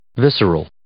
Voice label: "visceral", English